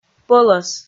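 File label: "пӧлӧс", Komi, female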